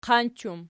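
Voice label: "qanch" plural, Archi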